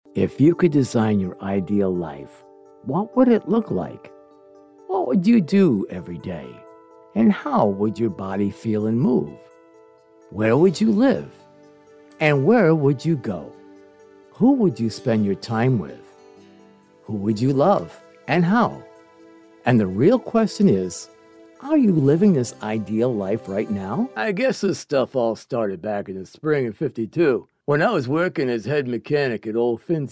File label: Narration